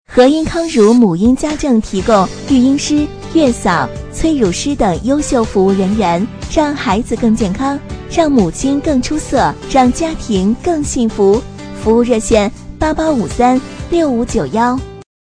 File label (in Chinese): 女 广告 母婴家政; 和婴康孺母婴家政